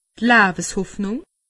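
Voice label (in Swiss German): "laweshoffnung", Alsatian; medecine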